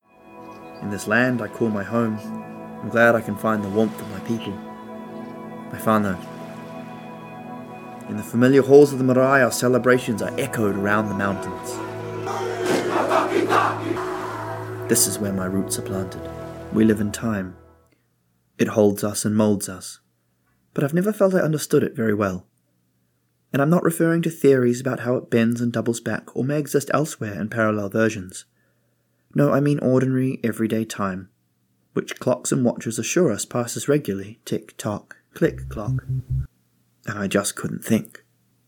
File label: Narration